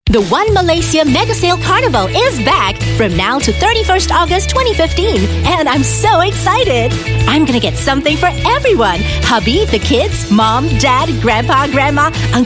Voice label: Asian English Commercial; Malaysia Mega Carnival